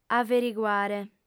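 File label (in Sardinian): "averiguare", female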